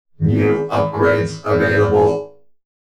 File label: new upgrades available, video game announcer